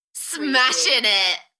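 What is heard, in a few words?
British female Smashin it Enthusiastic; beanstalk audio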